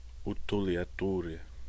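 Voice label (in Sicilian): "Uttuliaturi", Sicilian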